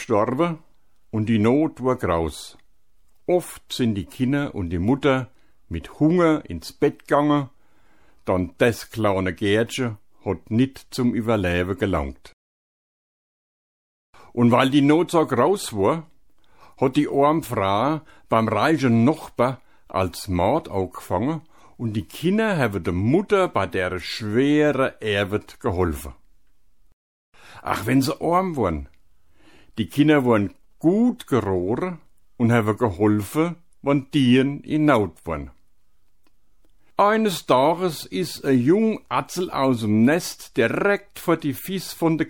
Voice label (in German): Schatzsucher Suedhessisch